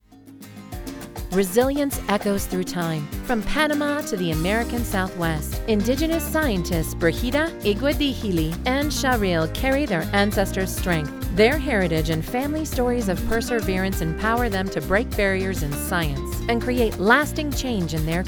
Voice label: North American Female; Smithsonian Kaleidoscope

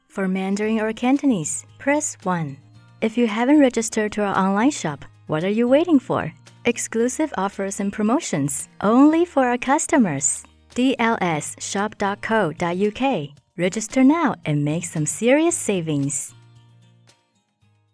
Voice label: voicemail english; female voice in Mandarin Chinese

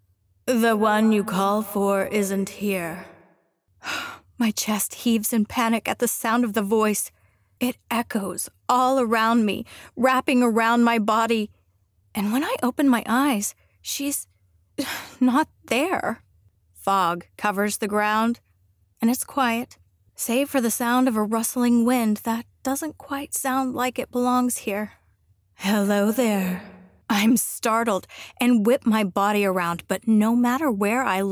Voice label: 1st P Narration

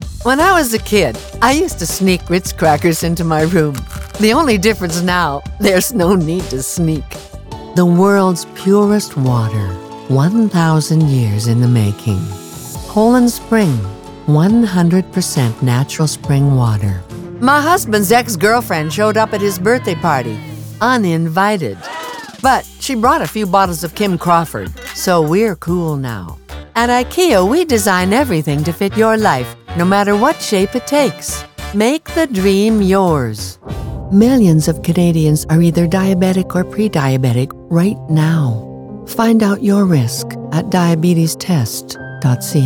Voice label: Commercial

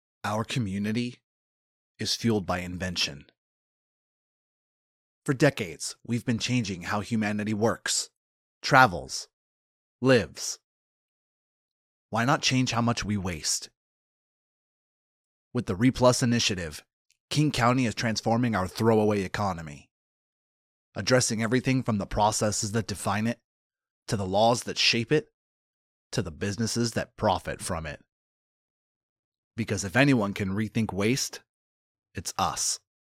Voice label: Recycling Ad

